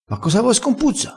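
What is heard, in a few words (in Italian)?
"scompuzza", dialettale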